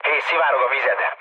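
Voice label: Hungarian damaged water mood med, speech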